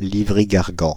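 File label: "Livry-Gargan", French, Paris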